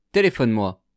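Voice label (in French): telephone moi, French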